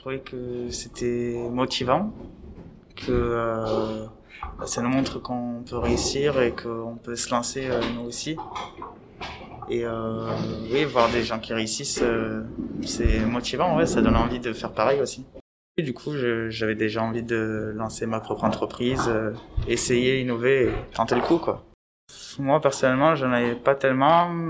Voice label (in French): Réponse d'un jeune